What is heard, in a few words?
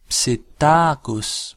"psittacus", latin